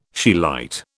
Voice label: "scheelite", British

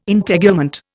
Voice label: "Integument", Indian

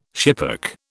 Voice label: "schipperke", British

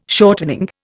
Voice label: "shortening", Indian

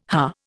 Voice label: "huh", Indian

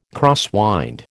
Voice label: "crosswind", American

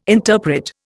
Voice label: "interpret", Indian

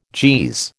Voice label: "Jeez", American

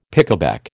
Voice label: "pickaback", American

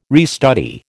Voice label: "restudy", American

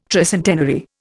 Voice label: "tricentenary", Indian

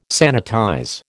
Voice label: "sanitize", American

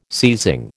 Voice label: "seizing", American

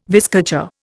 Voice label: "vizcacha", Indian

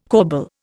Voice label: "corbel", Indian

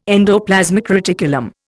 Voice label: "endoplasmic reticulum", Indian

